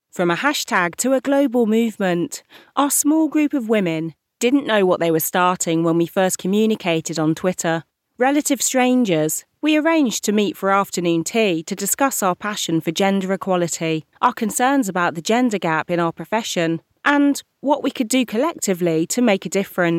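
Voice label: audiobook, Black British Female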